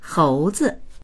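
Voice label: "hou2zi", Mandarin Chinese; 猴子; hóuzi; サル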